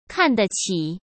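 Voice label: "看得起", Mandarin Chinese; hsk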